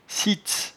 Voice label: "sitze", patois jura

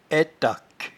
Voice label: "aitaque", patois jura

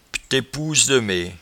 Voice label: "ptetpousedemee", patois jura

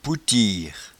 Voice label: "poutiere", patois jura

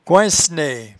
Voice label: "coincenaie", patois jura

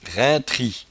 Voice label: "raintri", patois jura

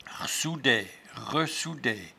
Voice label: "rsoudaie", patois jura

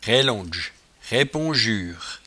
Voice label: "raillondge", patois jura

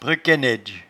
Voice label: "brequainnaidge", patois jura

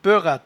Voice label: "beuratte", patois jura

